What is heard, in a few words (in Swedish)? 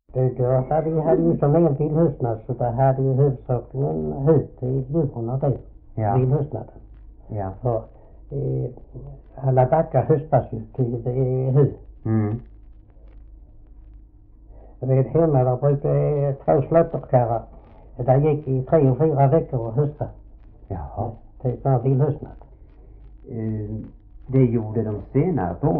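Oderljunga Skåne, Svenska sagor dialekter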